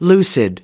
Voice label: "lucid", us